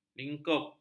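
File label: "lingkup", Malay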